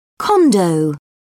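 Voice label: "condo", British English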